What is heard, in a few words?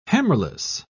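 "hammerless", British English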